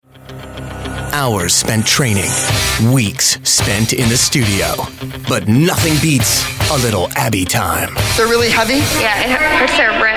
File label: promo men